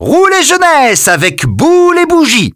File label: hamster welcome vo, French; Birthday Party Bash; Wii